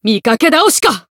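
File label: finish, Japanese; buria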